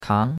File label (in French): "kang2", chinois; Cours-de-Chinois